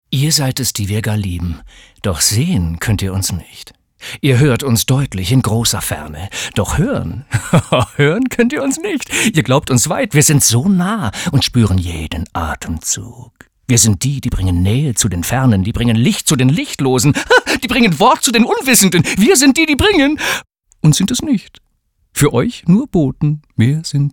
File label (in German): psycho, maenner